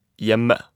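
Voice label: "hjemme", Norwegian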